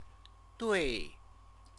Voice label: "dui", Chinese